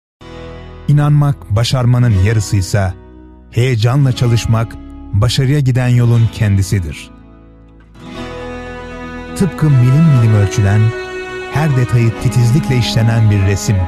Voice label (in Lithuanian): VYR Turku balsas, vyras